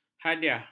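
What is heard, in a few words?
"hadiah", Malay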